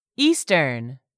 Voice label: "EASTERN", English; 3000 words

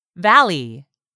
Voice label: "VALLEY", English; 3000 words

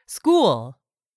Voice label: "SCHOOL", english; 3000 words

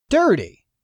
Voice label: "dirty", English; 3000 words

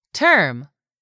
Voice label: "term", english; 3000 words

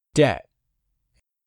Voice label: "DEBT", english; 3000 words

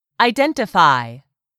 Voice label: "identify", English; 3000 words

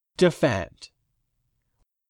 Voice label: "defend", English; 3000 words

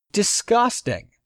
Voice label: "DISGUSTING", English; 3000 words

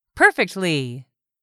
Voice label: "perfectly", English; 3000 words

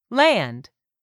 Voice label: "LAND", english; 3000 words

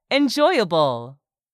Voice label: "ENJOYABLE", english; 3000 words

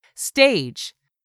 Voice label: "STAGE", english; 3000 words

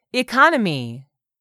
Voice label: "ECONOMY", english; 3000 words

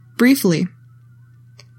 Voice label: "BRIEFLY", english; 3000 words

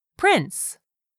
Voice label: "prince", English; 3000 words

